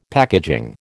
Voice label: "packaging", American